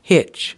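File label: "hitch", American English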